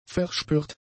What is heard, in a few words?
"verspürt", German; adjectives